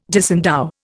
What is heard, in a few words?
"disendow", Indian